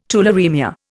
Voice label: "tularaemia", Indian

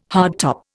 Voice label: "hardtop", Indian

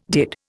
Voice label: "did", Indian

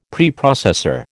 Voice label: "Preprocessor", American

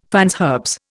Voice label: "fines herbes", Indian

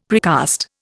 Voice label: "precast", Indian; Dictionary

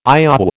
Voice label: "IOW", American

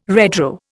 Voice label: "redraw", Indian

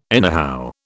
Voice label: "anyhow", British

